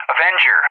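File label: "Avenger", English; JTAC; AirDefence; DCS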